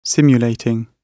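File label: "simulating", British English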